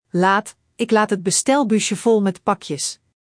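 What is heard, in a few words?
"laad", Dutch; Spelling Gr6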